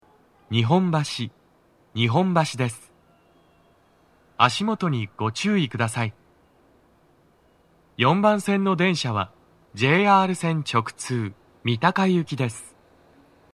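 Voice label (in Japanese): 到着放送, 男声; 放送は「三鷹行」です。; 日本橋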